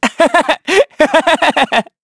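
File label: Vox Happy, korean; Evan; kingsraid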